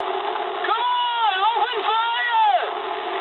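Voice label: English attack position mood high; War Thunder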